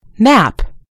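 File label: "nap", British English; gcompris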